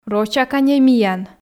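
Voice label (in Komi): рочаканьӧй миян, Komi, female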